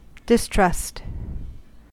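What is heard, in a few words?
"distrust", US English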